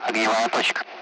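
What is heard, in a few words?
Speech, Russian; Target; target-bunker; Allied Flight; DCS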